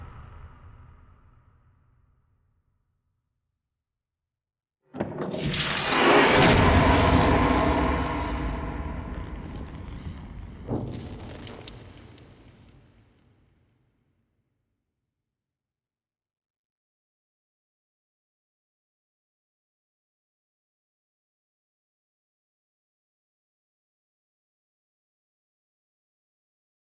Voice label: Tale Story Sample B; Dagath; Grandmother; Naberus